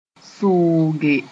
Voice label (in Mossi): "sʋʋge", Moore; unhook